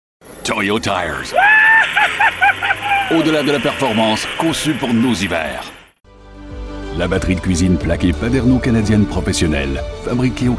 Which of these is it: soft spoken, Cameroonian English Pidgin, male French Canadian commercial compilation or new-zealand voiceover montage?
male French Canadian commercial compilation